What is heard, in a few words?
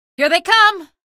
alert antlions, female; episodic; episode 1; Source engine